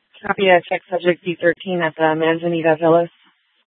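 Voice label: Scottsdale PD North Dispatch; Nth Dispatch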